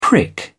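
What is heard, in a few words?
"prick", British English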